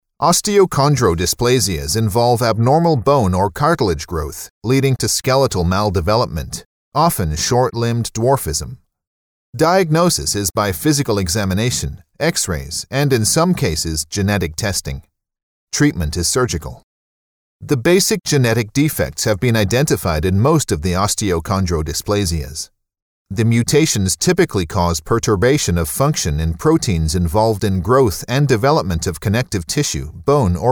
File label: eLearning Medical